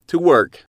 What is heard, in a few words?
"to work", English